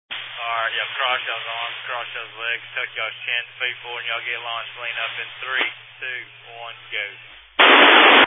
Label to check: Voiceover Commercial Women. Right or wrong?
Wrong